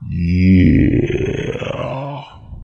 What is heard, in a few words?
"yeah" long; ooh ahh